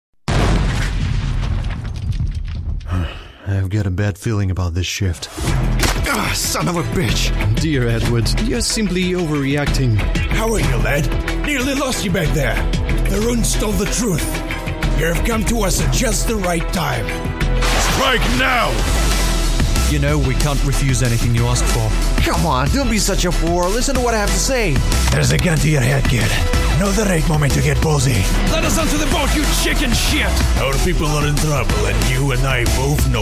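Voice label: Russian, Characters; GoLocalise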